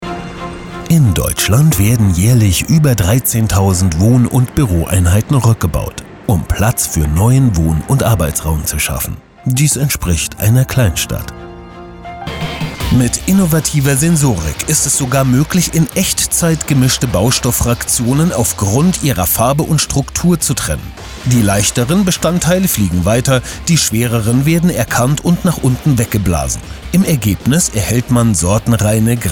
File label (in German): off voice